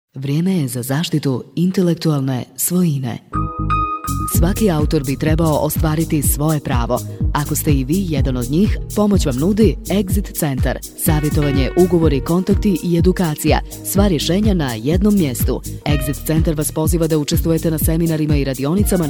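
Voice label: Croatian, female, elearning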